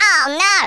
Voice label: RUN AWAY, Australian; Worms Armageddon

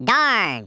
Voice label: bummer, Redneck

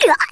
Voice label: OOFF, Wacky

